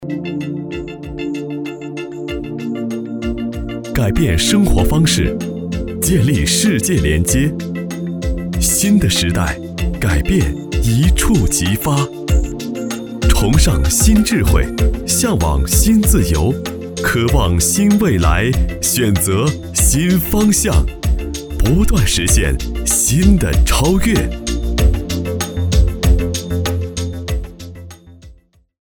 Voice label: 科技感; 改变生活方式

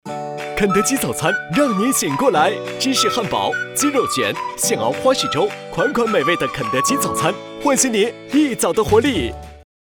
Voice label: 品质广告活力激情早餐电视广告; 原配

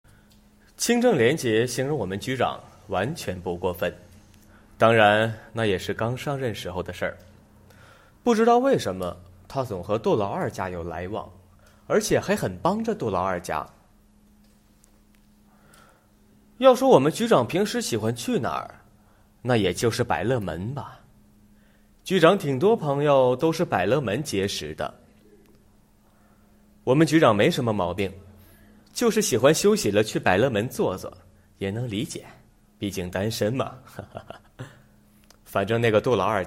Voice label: 副官声

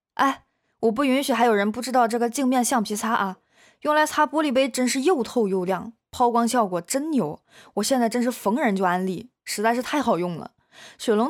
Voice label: 东北调

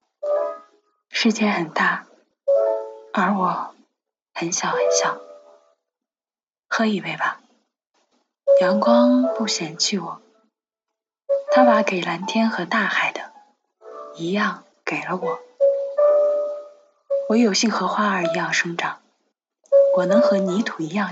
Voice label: 试音有音乐缩混

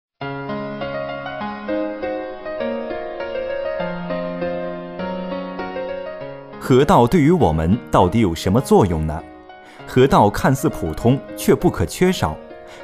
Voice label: 解说课件

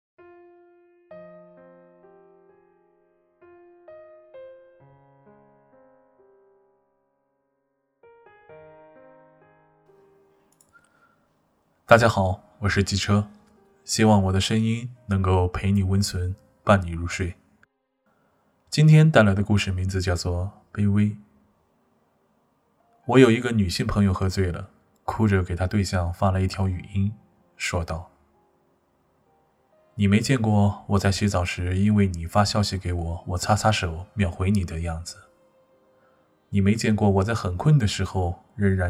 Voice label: 卑微

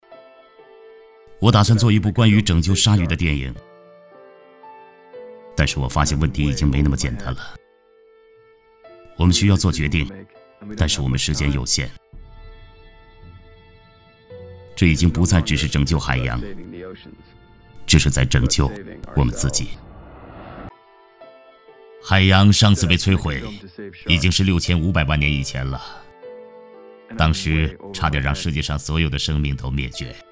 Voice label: 高端男纪录片海洋译制纪录片

